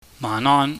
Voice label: "maahnon", Cantonese